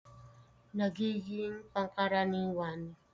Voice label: Tagalog